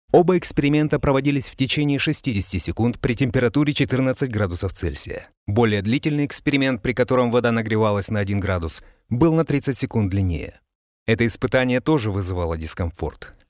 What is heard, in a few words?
russian e-learning